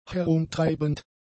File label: "herumtreibend", German; adjectives